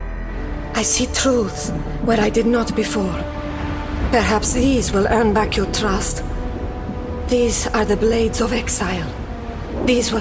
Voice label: Eastern European